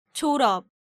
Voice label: "Joreop", Korean

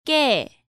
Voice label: "Kkae", Korean

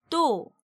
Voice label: "Ddo", Korean

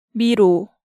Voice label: "miro", Korean

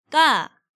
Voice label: "Kka", Korean

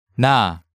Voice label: "Na", Hangeul sounds

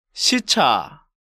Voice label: "Sicha", Korean

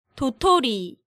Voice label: "Dotori", Korean

